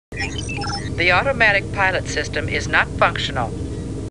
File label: Star Trek Computer Voice; StarTrekLCAR